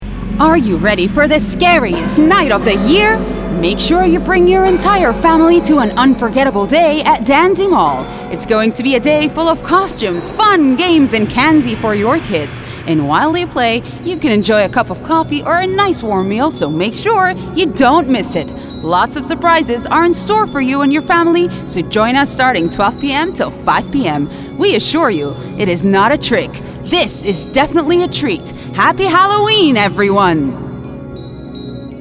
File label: female english, ivr voice over; ivr voice over supplying